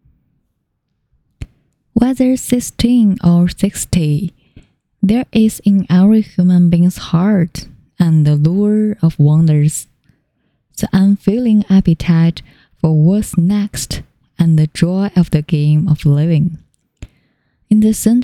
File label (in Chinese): 英文 读物